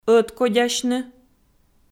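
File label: "ӧткодясьны" Komi, female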